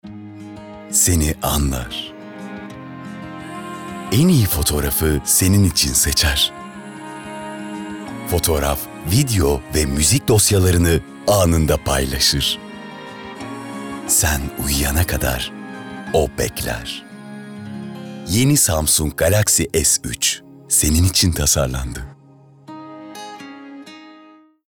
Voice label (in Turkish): Romantik